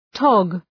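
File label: "tog", English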